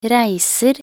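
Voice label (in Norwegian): "reiser", Norwegian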